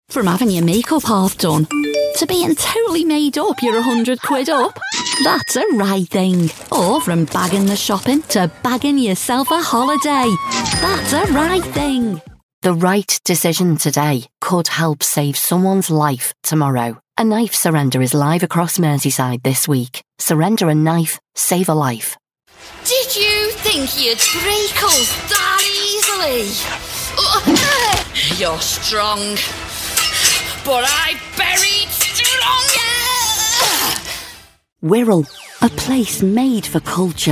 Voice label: liverpool accent